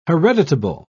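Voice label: "hereditable", British English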